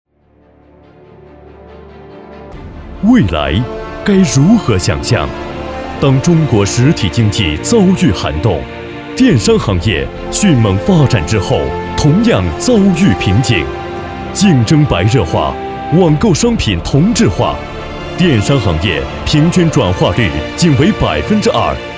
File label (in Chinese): 男 宣传 企业宣传 电商企业